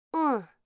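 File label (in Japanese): "うん", Japanese; Windows向けシステムボイス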